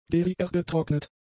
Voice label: "geriergetrocknet", German; adjectives